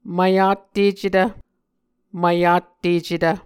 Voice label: "mvyattecetv", Muscogee